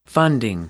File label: "funding", English; noun